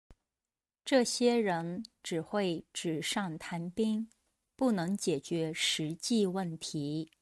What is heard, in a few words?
denoised